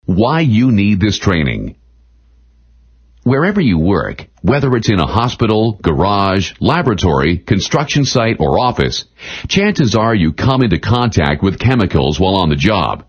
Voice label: E-Learning; Schulungsvideos Profi Publikum; NATIVE PROFISPRECHER